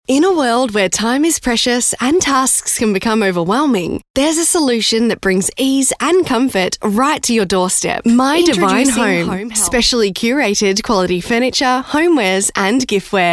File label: Soft Sell